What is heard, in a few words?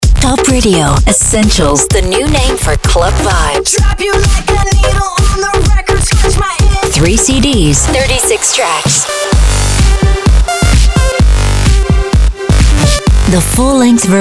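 commercial, radiospot; topradio essentials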